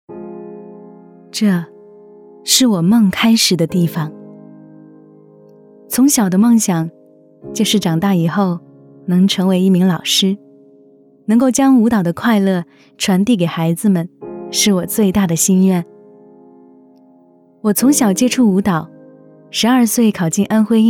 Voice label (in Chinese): 国语女, 旁白类; 梦想舞蹈